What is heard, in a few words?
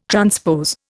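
"transpose", Indian English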